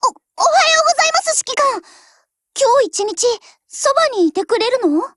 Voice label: HELLO JP; MP446